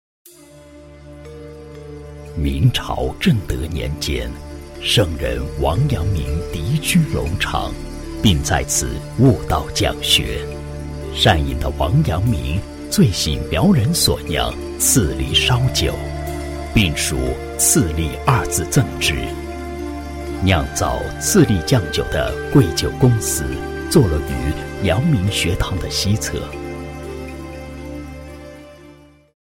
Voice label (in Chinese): 男 专题 记录片古典, 国语男声配音